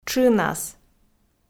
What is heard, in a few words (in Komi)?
"тшынас", Komi